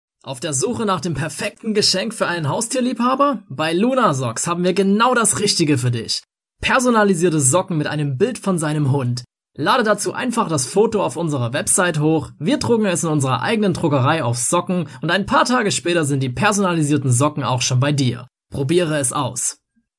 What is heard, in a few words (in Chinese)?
德语男声